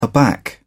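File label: "aback", British English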